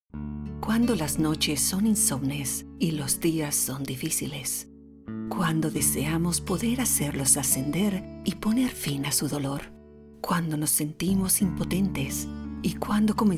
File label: spanish latin american